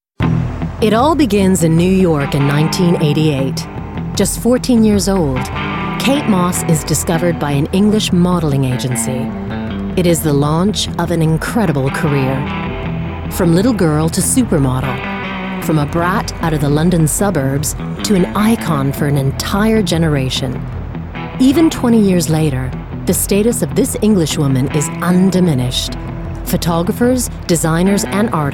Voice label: Narrative